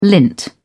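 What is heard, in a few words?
"lint", British English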